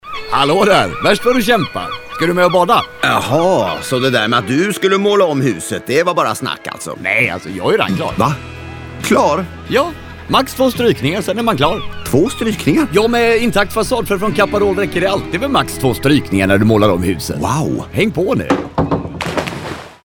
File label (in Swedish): radioreklam badet; caparol